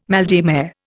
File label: "mal de mer", Indian